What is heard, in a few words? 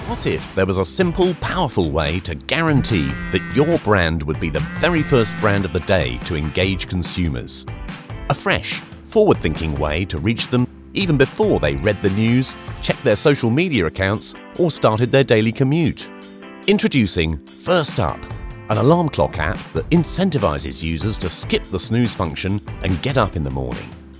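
explainer, English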